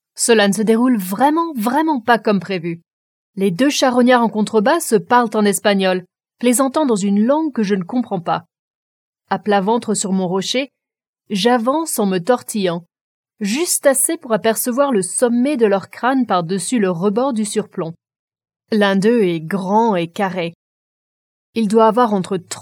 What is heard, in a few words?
french audiobooks